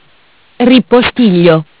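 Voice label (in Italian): "Ripostiglio", Italian; Bentel; BLE320; MESSAGGI VOCALI; Audio BGS220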